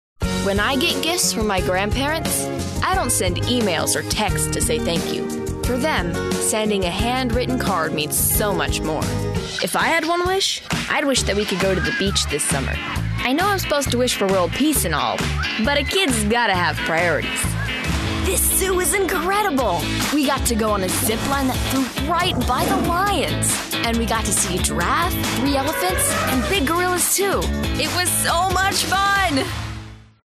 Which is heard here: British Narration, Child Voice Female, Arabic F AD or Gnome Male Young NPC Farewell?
Child Voice Female